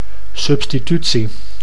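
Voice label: "substitutie", Dutch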